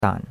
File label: "dan3", chinois; Cours de Chinois